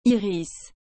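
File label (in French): "iris", French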